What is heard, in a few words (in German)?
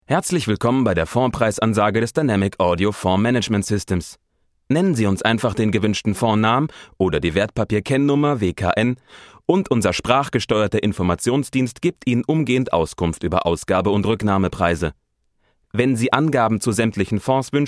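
telefon, deutsch männer; sprecher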